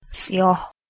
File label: "siyah", Persian, F